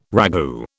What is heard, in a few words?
"ragout", British